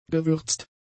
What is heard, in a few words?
"gewürzt", German; adjectives